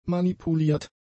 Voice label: "manipuliert", German; adjectives